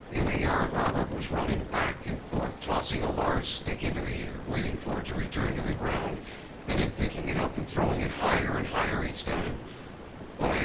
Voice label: male noisy